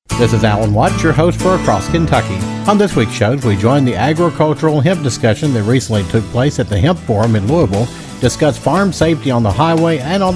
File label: promo; Across Kentucky